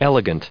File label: "elegant", us